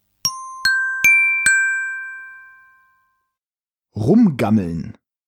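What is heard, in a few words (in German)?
"rumgammeln", German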